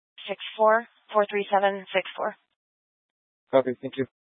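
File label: Police 1 Dispatch, Scanner audio recording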